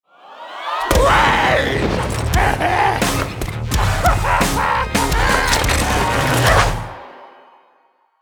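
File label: Sfx & music Introduction with voice; Mort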